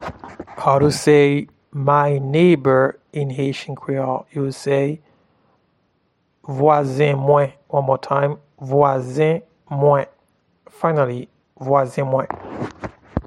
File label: My neighbor in Haitian Creole, Vwazen mwen